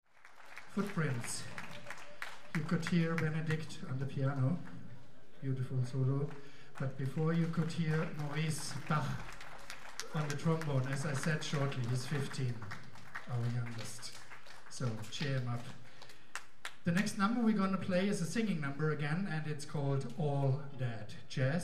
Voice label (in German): Ansage